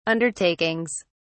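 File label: "undertakings", British English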